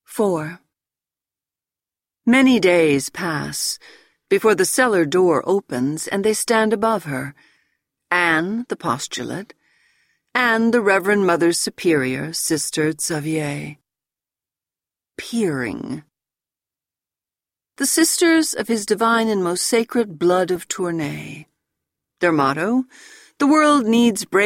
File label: Audiobook sample; In the Company of Angels